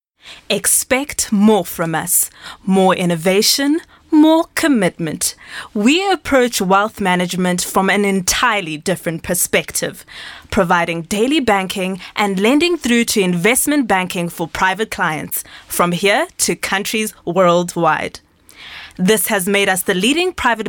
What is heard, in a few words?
English Corporate